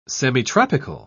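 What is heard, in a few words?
"semitropical", English, British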